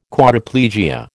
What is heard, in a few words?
"Quadriplegia", American